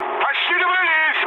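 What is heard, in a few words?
Russian near waypoint mood high, speech; War Thunder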